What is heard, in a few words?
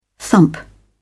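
"thump", British English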